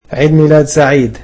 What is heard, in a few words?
"Happy birthday", egyptian